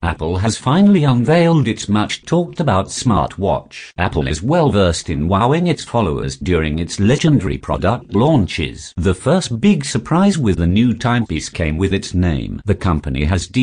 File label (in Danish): Engelsk UK